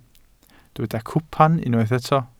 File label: "cwpan", Welsh